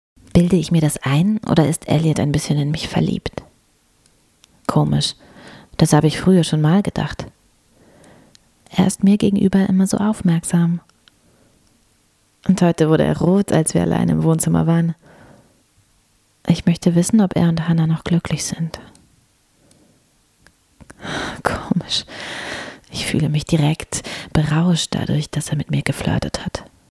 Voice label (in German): Innerer Monolog